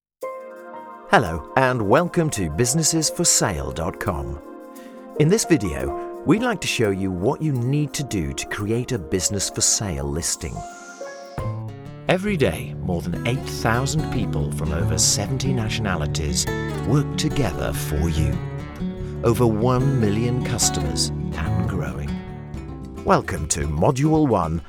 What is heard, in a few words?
Corporate showcase